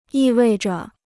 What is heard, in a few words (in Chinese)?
"意味着", Chinese